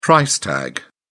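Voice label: "price tag", British English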